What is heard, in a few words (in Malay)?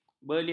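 "belia", Malay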